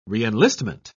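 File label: "reenlistment", English, uk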